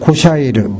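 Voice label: "koshaeru", Minamiyamagata dialect Japanese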